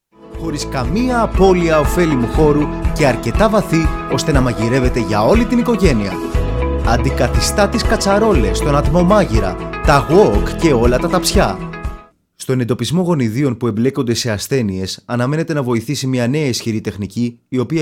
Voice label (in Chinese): 希腊语男 外语 小语种